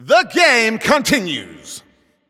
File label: Announcer Overtime Starts